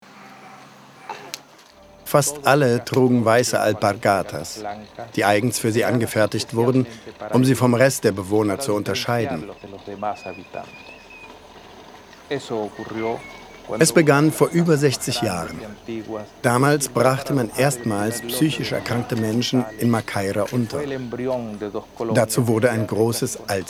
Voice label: Voice Over; VoiceOver Doku - Weiße Alpargatas